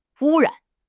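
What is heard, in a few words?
"wu1 ran3", Chinese; HSK4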